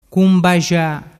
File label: "cumbaxa", dialettu